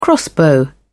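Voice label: "crossbow", British English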